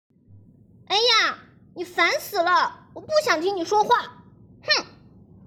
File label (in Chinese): 初中女孩